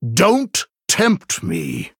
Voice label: Male NightElf